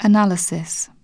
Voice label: "analysis", Pronunciation